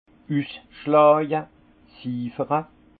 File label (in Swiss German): "usschlaje", alsace; vin; débourrage